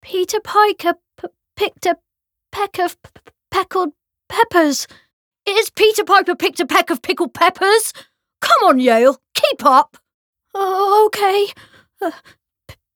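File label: voice English idle; YaleAndOliver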